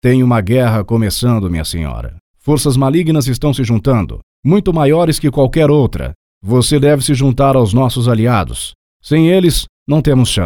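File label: Character Knight Brazilian Portuguese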